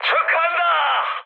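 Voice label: Korean player killed enemy mood high, speech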